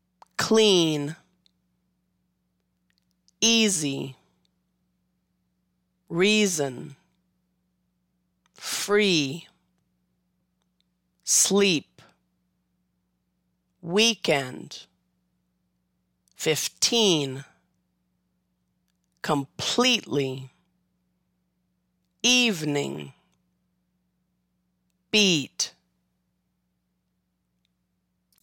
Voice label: Long E, American English; long and short vowels in American English